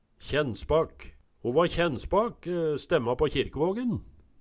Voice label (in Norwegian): kjennspak, Numedalsmål